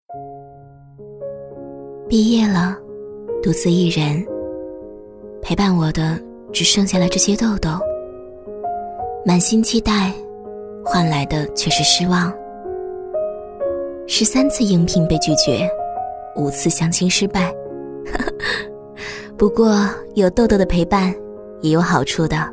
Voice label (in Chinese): 女 独白 伤感 孤单 无助, 国语女声配音; 护肤; 兰汇痘痘女主角